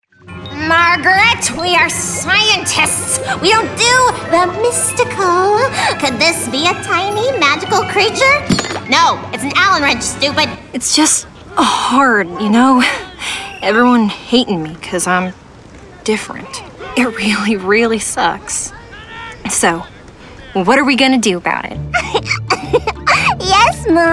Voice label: VO Character